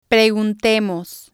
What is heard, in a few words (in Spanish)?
"preguntemos", spanish